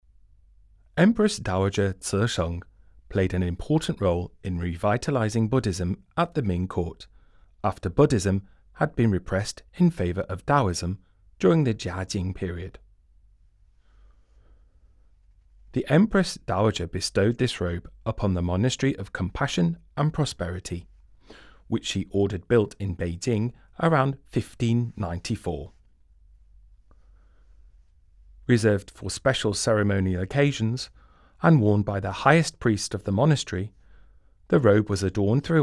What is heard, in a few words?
ENG, audio guide; Buddhist ritual robe (kasaya) with myriad Buddhas and Bodhisattvas; Chris Hall collection